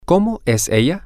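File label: what Is She Like, Spanish, male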